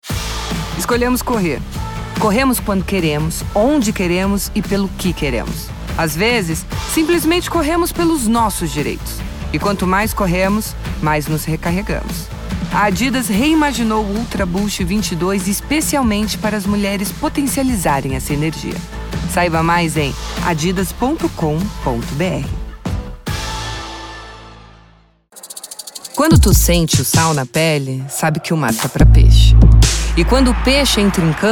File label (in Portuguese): Repertório locução empoderada